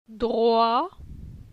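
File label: "Droi", French; phonetique; la syllabe oi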